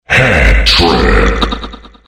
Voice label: hattrick, quake